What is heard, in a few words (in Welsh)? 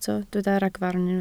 "ragfarn", Welsh